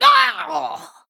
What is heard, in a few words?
Female Dwarf Death